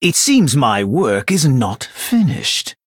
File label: Male Blood Elf; en